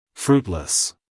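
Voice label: "fruitless", American English, male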